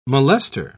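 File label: "molester", British English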